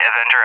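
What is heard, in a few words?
"Avenger", English; AirDefence; DCS; Allied Flight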